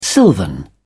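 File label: "silvan", British English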